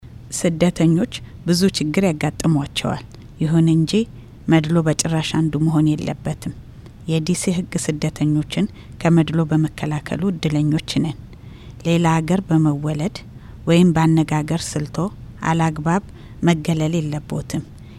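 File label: Ethiopian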